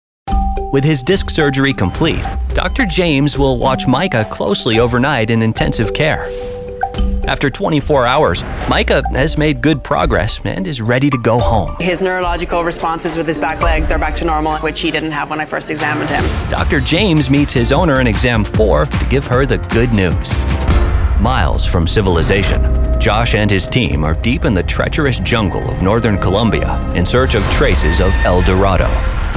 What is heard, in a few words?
TV Narration